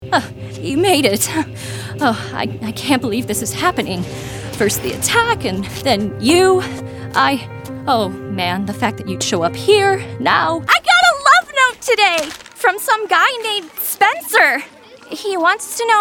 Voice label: Animation; Producer: Mary Lynn Wissner